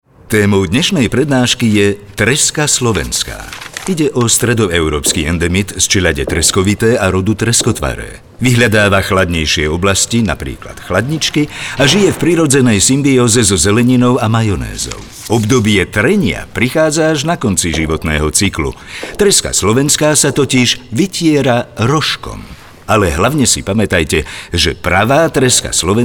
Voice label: radio